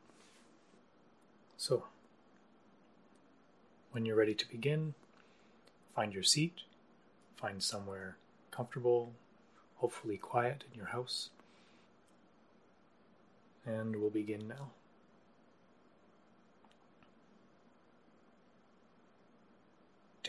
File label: meditation guided sound